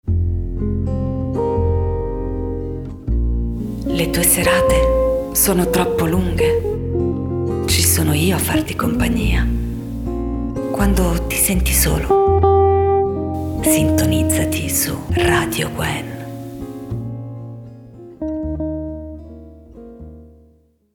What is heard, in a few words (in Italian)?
Jingle ti senti solo